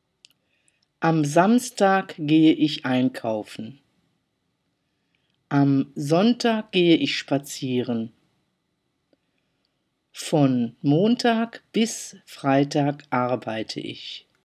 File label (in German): Am Samstag, german